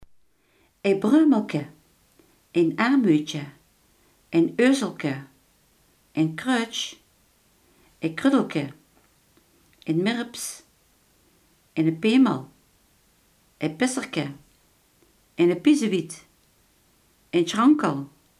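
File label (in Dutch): iemand die klein en schriel is, Limburgse gesproken woordenboeken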